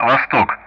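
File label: Speech Russian; Common Player E; DCS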